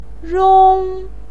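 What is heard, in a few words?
"rong", Mandarin Chinese syllable, first tone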